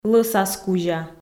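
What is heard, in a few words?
"лӧсас кузя", Komi, female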